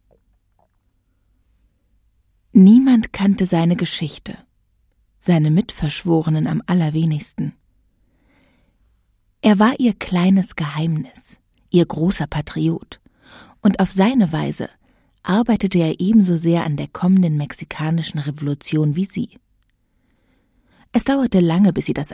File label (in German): Schauspiel Sanft